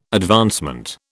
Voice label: "advancement", British